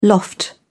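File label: "loft", British English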